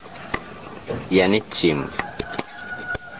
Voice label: "yanichim", Tobian; hair; Eyarai yanichimeri faifinae. She has long hair.